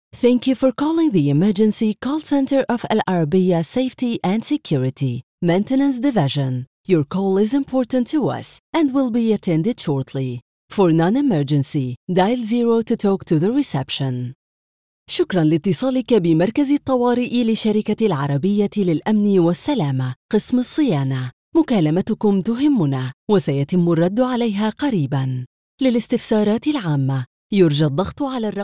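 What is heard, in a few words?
Arabic Female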